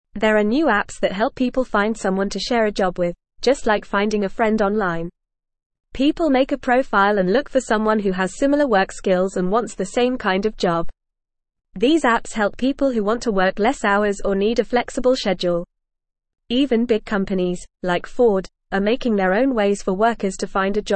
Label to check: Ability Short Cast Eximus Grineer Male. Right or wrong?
Wrong